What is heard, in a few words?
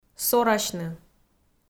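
"сорасьны", Komi, female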